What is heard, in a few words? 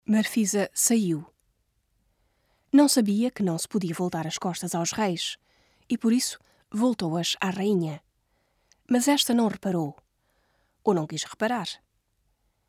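European Portuguese; foreign languages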